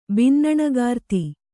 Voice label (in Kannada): "ಬಿನ್ನಣಗಾರ್ತಿ", Kannada